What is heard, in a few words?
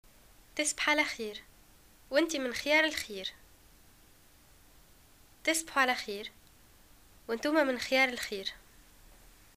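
greeting good night, Tunisian Arabic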